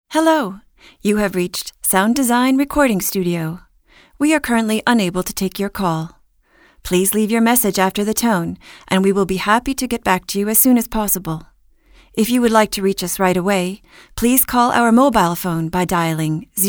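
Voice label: English; sprecher; englisch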